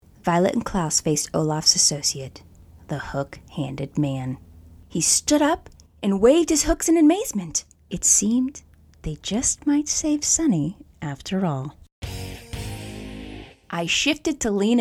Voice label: Narration Voice Over